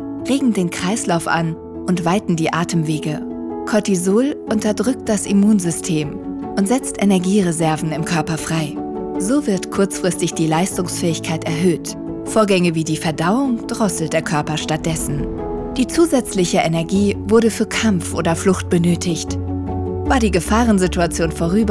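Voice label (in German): Erklärfilm Stress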